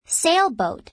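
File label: "sail boat", English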